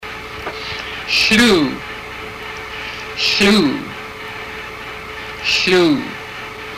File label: body, haida; Hlúu